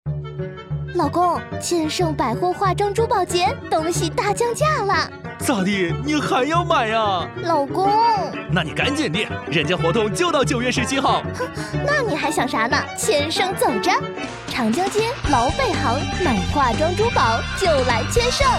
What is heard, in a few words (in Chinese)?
女-广告-男女对话